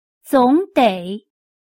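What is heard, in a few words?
"总得", Chinese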